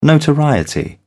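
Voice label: "notoriety", British English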